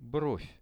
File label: "brov", Russian; бровь